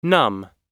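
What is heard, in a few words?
"numb", American English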